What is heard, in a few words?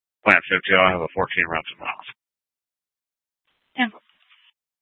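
Mesa Police Department Superstition Dispatch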